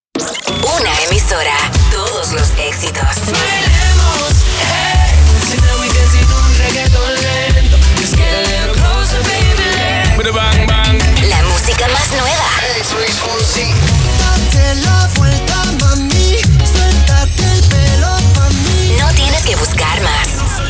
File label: SPANISH